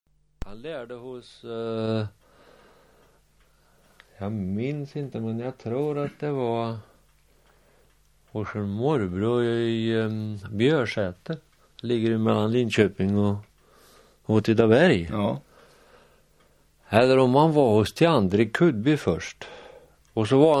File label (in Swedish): Tåby, dialekter; Folkminnen